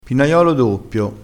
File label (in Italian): "pinaiolo doppio", crespinese